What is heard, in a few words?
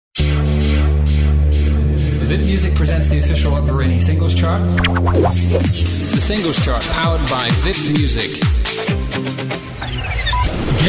radio compilation, english, GB